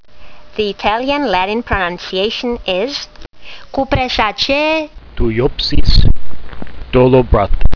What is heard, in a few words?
latin; Thujopsis dolabrata